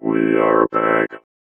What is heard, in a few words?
VOICEFX ELCTR 140; Techno2